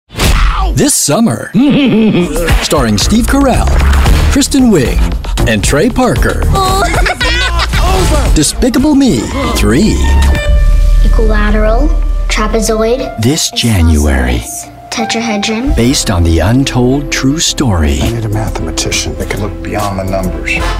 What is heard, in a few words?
trailer comedy